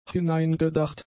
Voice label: "hineingedacht", German; adjectives